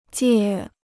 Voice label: "戒", Chinese

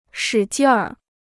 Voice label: "使劲儿", Chinese

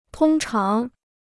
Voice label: "通常", Chinese

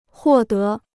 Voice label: "获得", Chinese

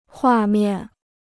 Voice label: "画面", Chinese

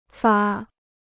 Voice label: "发", Chinese

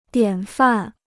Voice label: "典范", Chinese

